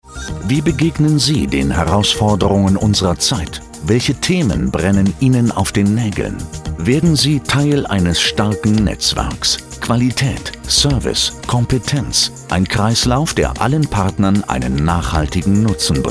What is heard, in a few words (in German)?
werbung internet MEDIA NETWORK; BONIES